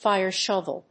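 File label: "fire-shovel", English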